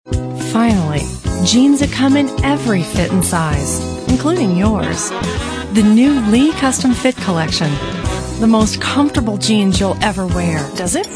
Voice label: female, late20s late30s clear

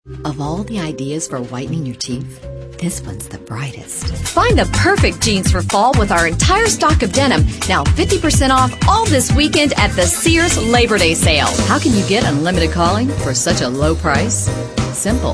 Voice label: female, late30s early40s clean

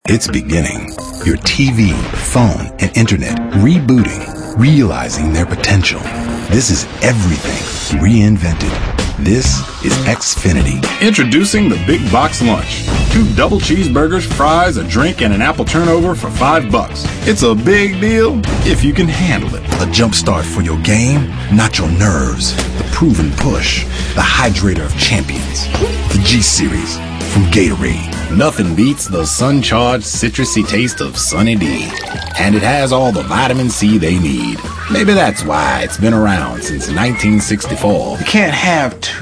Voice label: male, mid 30s mid 40s, cool

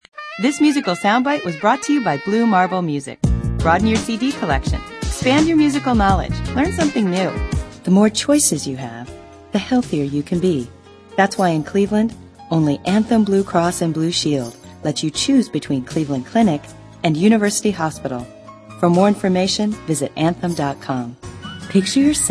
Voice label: female, late 20s mid 30s contemp

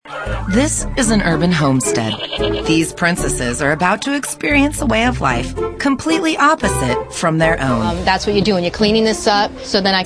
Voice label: female narration

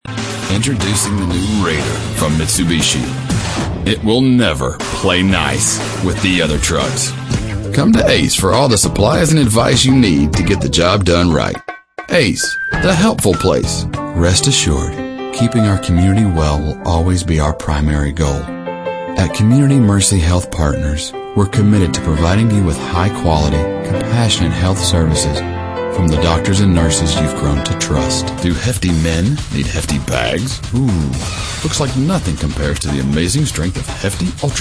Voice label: male, mid 30s mid 40s, cool

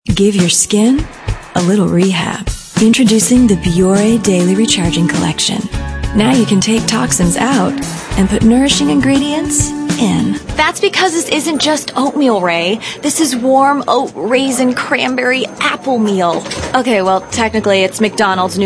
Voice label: female late 20s mid 30s contemp

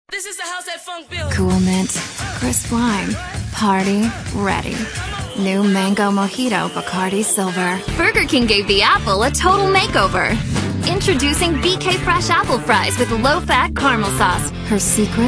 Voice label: female, late 20s mid 30s contemp

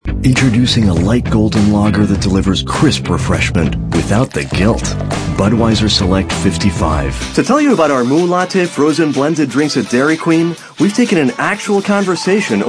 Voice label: male, sophisticated

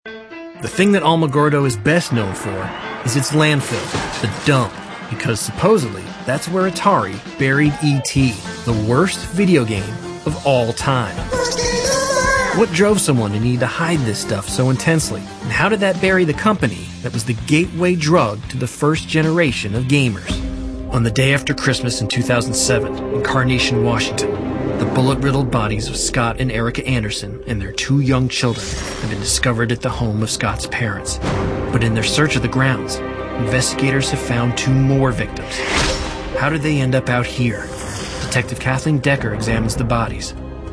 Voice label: Narration

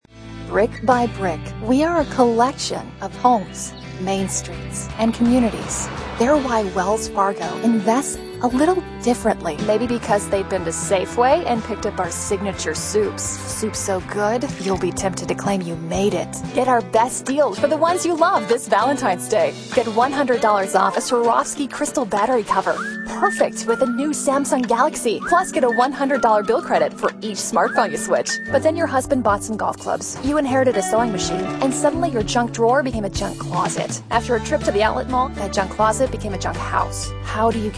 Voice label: female, late20s mid30s contemp

